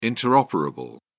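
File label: "interoperable", British English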